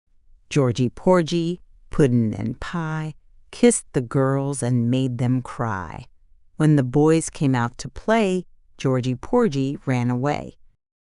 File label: fem us; Georgie Porgie